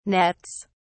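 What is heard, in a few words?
"nets", British English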